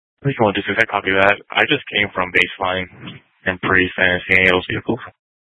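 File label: Sheriff, Parks, Sheriff radio scanner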